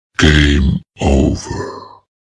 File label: Game Over Voice Scary Sound